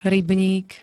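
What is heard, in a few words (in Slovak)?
"rybník", Slovak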